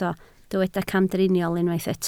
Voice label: "camdriniol", Welsh